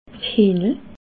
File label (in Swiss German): "hiehnel", Alsace